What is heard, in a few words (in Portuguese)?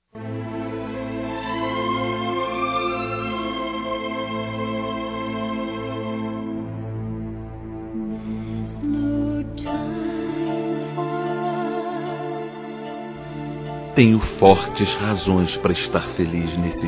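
ESPOSA, male, Telemensagens Dia das Mães; Masc; Telemensagens 2017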